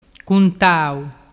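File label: "cuntau", Vernazzese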